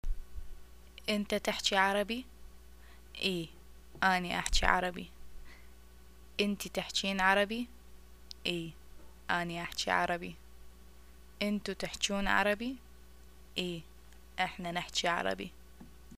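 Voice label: do u speak arabic, Iraqi Arabic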